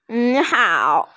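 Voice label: "ni3hao3", Mandarin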